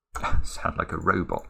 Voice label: I sound like a robot